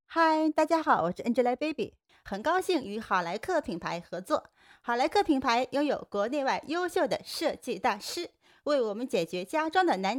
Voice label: 模仿 baby

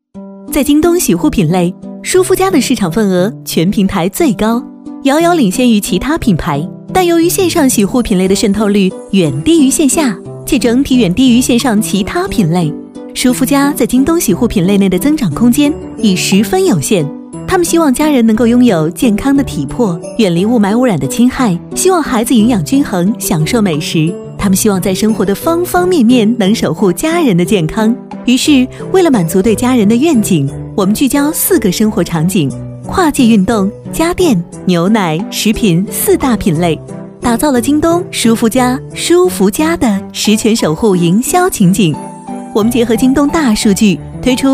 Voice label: 宣传片 病毒; JD京东舒肤佳

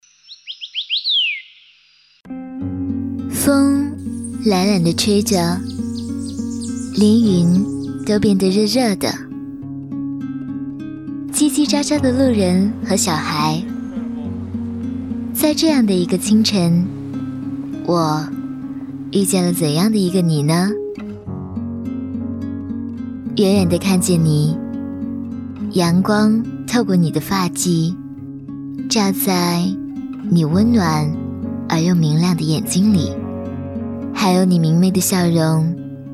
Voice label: 甜美台湾腔; 微电影; 遇见你甜美台湾腔

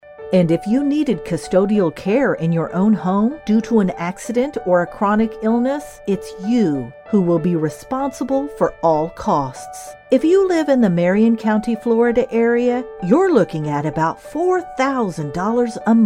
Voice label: 外籍女英 外语 美式英语 广告类

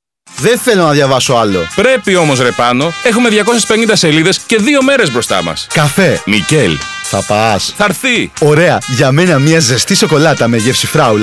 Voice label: 希腊语男 外语 小语种

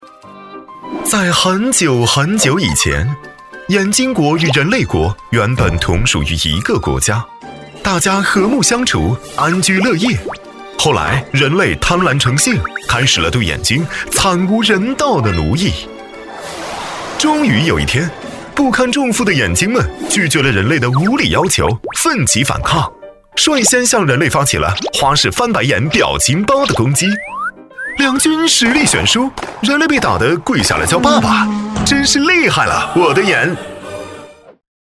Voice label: 男国 宣传片; 病毒; 眼睛

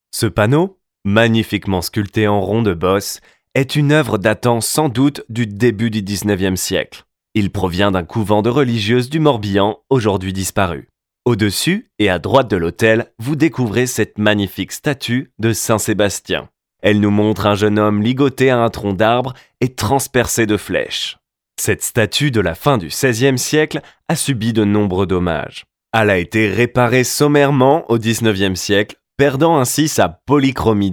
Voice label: 法语男, 外语, 小语种

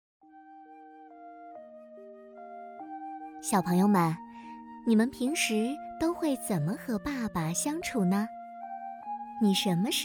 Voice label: 多媒体 儿童故事 百变童声

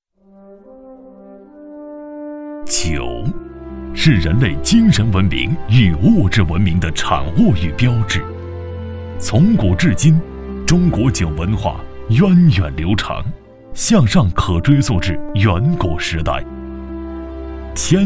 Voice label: 男国 宣传片 企业 酒文化 浑厚; 中国酒文化

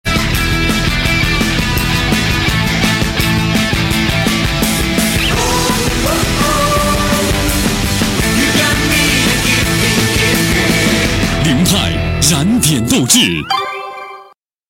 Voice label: 广告 汽车; 广本凌派

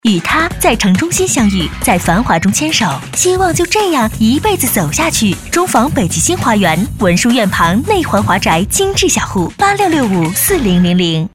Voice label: 女 广告 地产 欢快; 中房北极星花园

